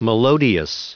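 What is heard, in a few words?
"melodious", English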